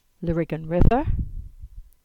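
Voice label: English; Lariggan River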